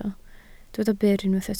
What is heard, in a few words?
"byr", Welsh